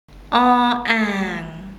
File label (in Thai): "อ อ่าง", Thai alphabet